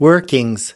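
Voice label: "workings", US English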